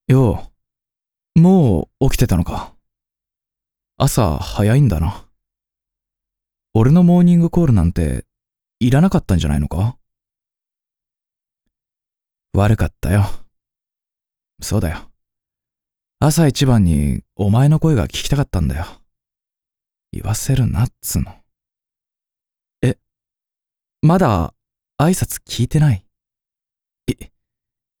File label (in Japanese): 「おはよう」ツンデレ